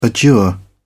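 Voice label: "adjure", British English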